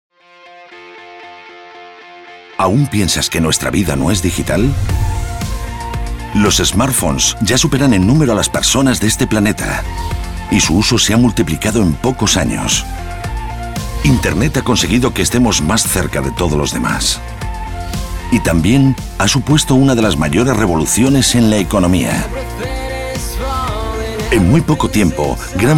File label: spanish spain male corporate